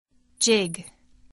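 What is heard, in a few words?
"jig", English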